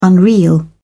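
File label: "unreal", British English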